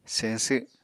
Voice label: "señsw", Apatani

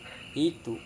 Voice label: "hitu", Serewen

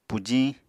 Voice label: "pujiñ", Apatani

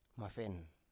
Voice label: "mafen", Biak

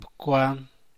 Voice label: "bcuan", San Mateo Macuilxochitl Zapotec; fingers; dedos

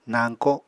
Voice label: "nañko", Apatani

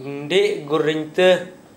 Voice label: rain shower, Gta, Dialect: Hill; nɖeʔ gur=ɽiŋ=tǝ; it is raining

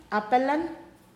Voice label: "twenty-first day of month", Pingelapese

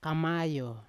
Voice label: "kamayo" fog, Yawa

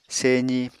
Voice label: "sezi", Apatani

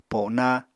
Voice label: "pona", Apatani

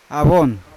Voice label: "avon" pandanus fruit, Yawa

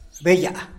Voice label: "beyaa", tlacochahuaya; fly; animals

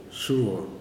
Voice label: "two", kuman

